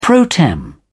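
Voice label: "pro tem", British English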